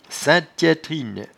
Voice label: "saintetiaitrine", patois jura

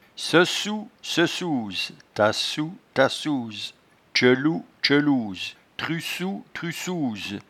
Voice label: "seucou", patois jura

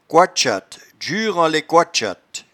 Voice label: "coitchattedjureenlai", patois jura

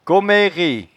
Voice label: "commererepas", patois jura

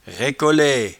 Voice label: "raicolaie", patois jura

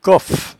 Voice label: "coffe", patois jura

